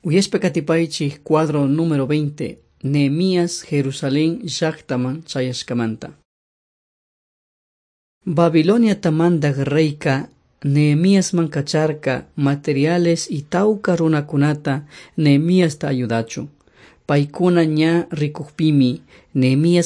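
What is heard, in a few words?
quichua chimborazo, drama; on trial for god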